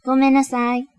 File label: "Sorry", Japanese